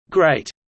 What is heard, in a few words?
low; studying abroad